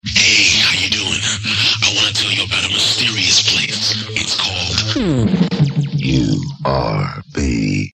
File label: hey how you doing, jingle; mysterious place